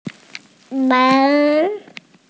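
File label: "men2", Mandarin Chinese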